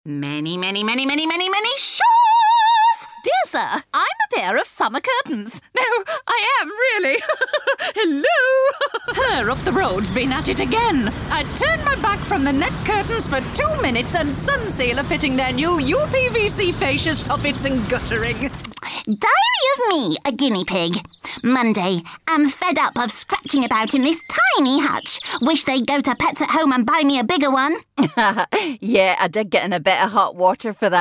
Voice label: characters and accents

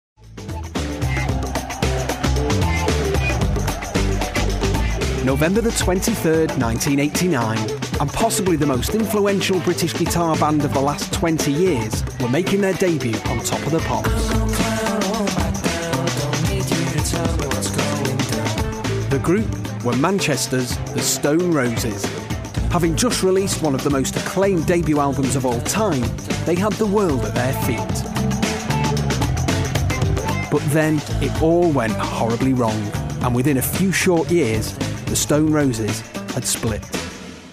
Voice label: documentary

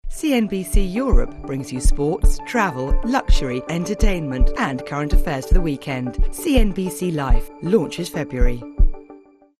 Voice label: promo; cnbc

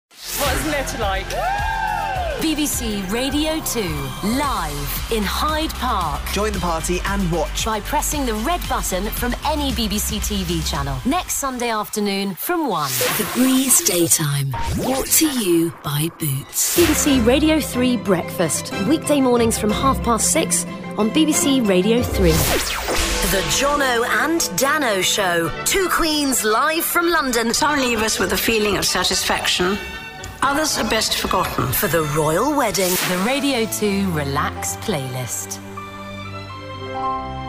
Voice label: imaging

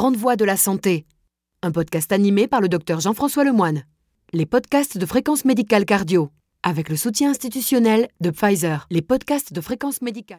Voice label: french voice continuity radio

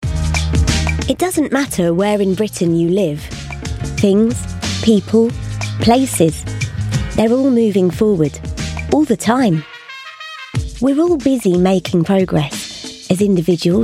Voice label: commercial

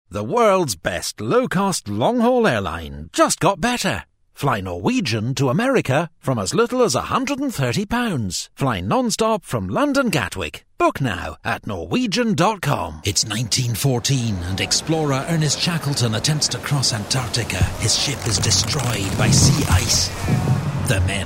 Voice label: commercials

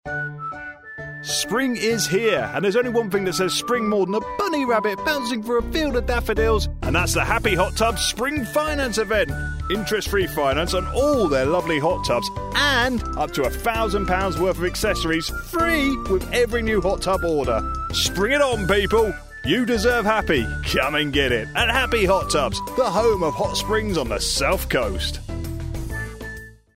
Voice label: commercial

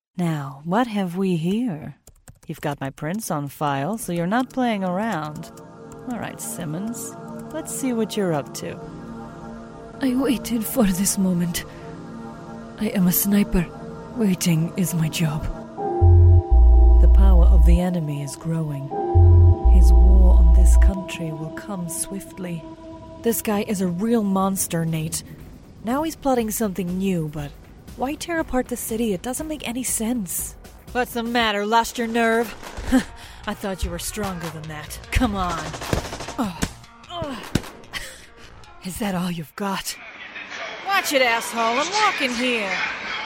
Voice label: northern irish, gaming

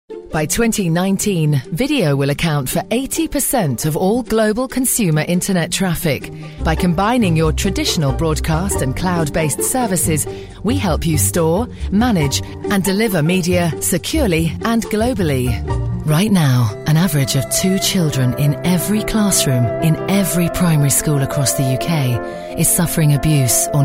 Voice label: corporate

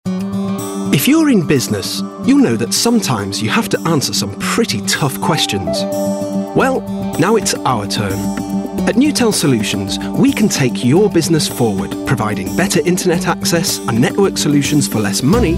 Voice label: no northern twang

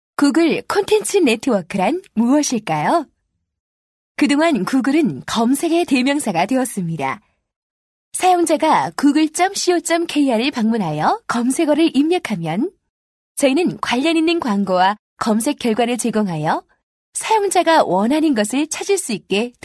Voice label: corporate

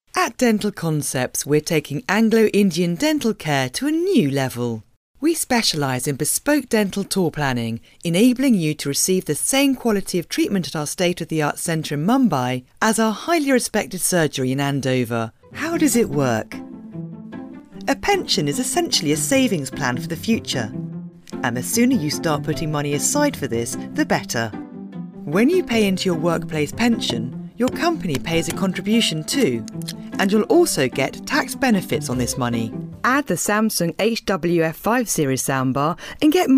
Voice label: corporate

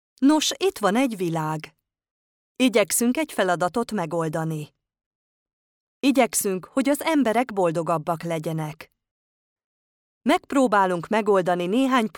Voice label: hungarian female narration

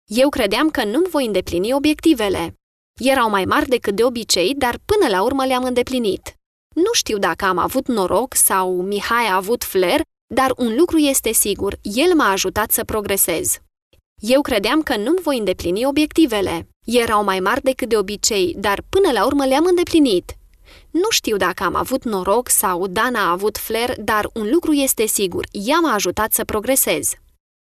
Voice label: romanian elearning

